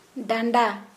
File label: Santali waist